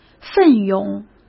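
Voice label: "奋勇", Mandarin Chinese; hsk; chinese-flashcards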